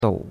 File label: "dou3", chinois; Cours-de-Chinois